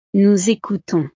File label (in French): "nous ecoutons", frances